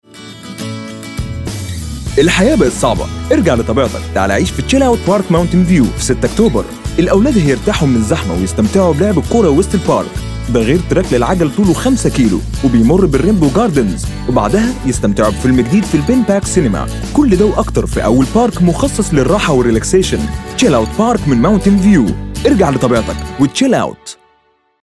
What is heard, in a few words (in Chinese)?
阿拉伯语男-电视节目广告